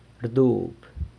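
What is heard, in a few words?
"rdub", sweeps, San Lucas Quiaviní Zapotec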